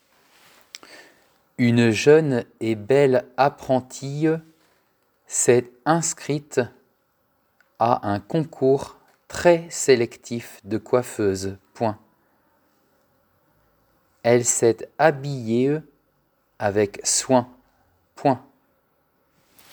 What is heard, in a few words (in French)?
Dictée